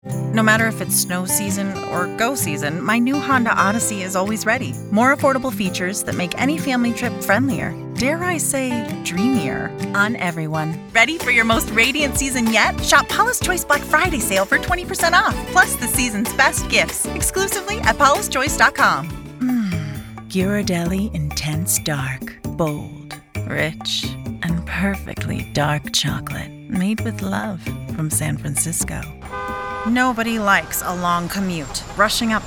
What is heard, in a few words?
Commercial VO